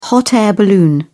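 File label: "hot-air balloon", British English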